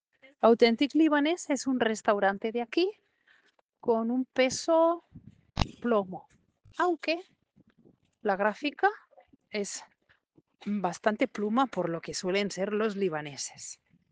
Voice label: Voice message